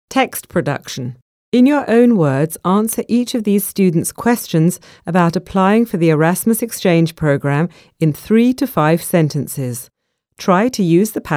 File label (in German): Englisch Mittel Übung; Erasmus plus